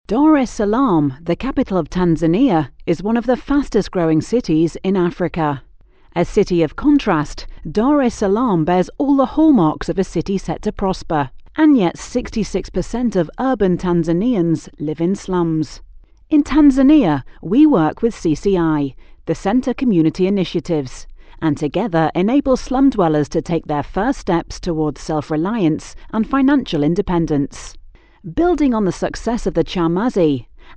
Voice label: British F, British English